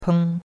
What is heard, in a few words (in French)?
"peng1", chinois; Cours-de-Chinois